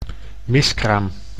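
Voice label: "miskraam", Dutch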